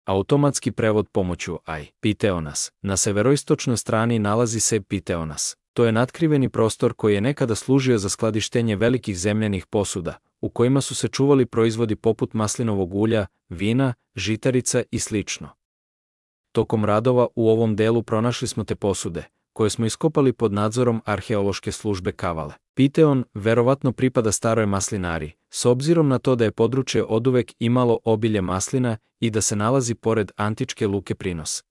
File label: serbian, Аудио водич; Πιθεώνας; Pitheonas; античној уљари/млину за маслине, будући да је област одвајкада богата маслинама и налази се близу античке луке Принос